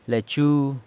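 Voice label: "lechu", lettuce, San Lucas Quiaviní Zapotec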